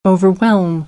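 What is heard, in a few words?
"overwhelm", American English; AmericanBritish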